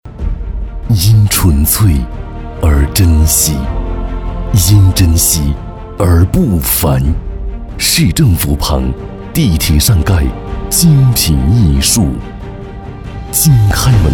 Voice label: 男 宣传片 浑厚磁性

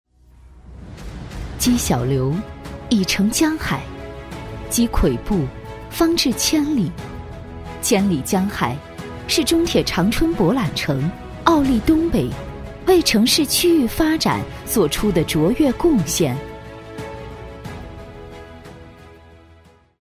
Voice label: 女 专题; 中铁长春博览城

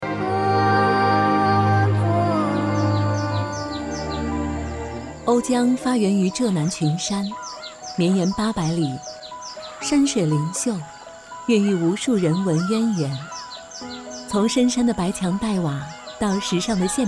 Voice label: 女 纪录片 清新自然; 诗为山水山而歌

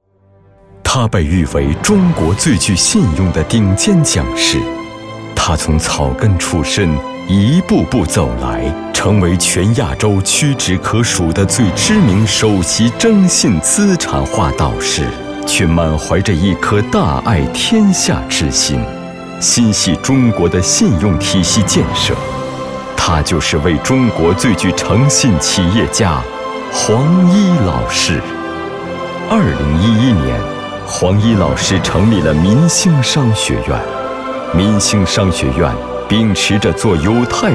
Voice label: 男 专题 人物他

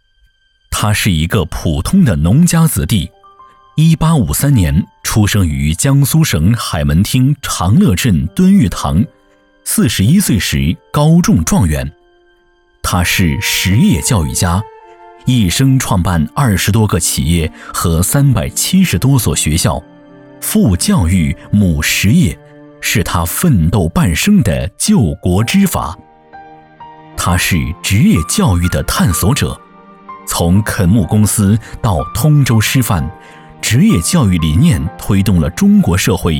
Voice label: 男 专题纪录片; 西汉张謇

